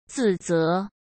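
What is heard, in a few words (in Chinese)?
"自责", Mandarin Chinese; chinese-flashcards; hsk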